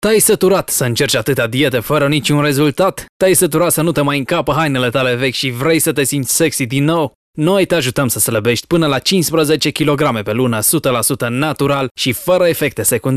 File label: teleshopping